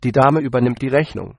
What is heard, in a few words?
pay, german